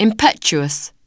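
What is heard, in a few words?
"impetuous" English word; oxford api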